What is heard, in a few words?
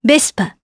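Vox Japanese; Isolet; Vespa; kingsraid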